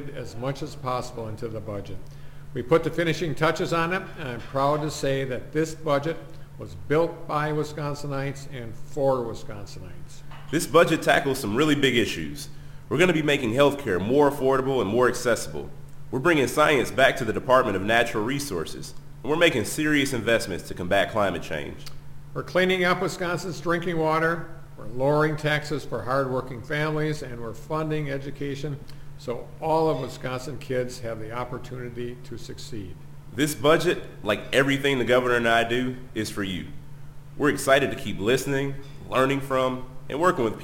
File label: radio address